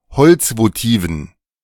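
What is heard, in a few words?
"Holzvotiven", German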